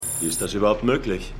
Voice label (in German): Gefaengnisleitungsmann, Stimmproben